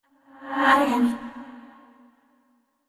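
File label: neutral, voice; yamiko aragami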